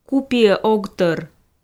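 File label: "ку пиӧ ог тӧр", Komi, female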